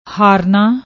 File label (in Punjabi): "harna", Punjabi; ਹਾਰਨਾ